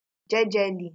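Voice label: "jejely" Nigerian Pidgin, female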